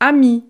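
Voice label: "ami", French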